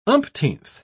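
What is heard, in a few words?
"umpteenth", British English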